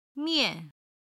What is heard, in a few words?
"mian", Chinese